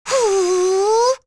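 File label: Vox Casting, English; Annette; kingsraid; voices heroes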